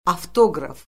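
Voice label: "avtoqraf", Azerbaijani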